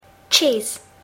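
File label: "cheese", English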